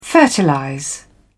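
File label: "fertilize", British English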